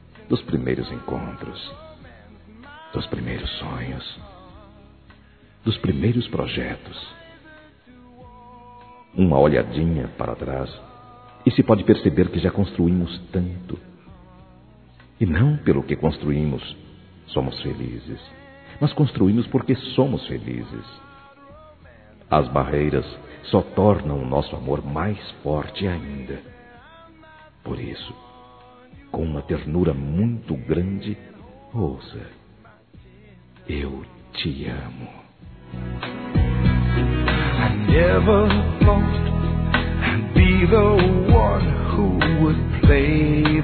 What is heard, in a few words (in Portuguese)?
Dia dos Namorados Esposa Masculino; Telemensagens; Telemensagens 2017